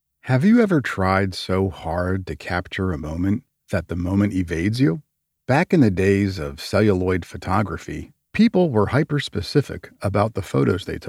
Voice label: SmartPhone App Explainer